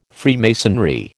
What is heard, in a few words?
"Freemasonry", American